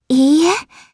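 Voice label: Vox Deny, Japanese; Frey; kingsraid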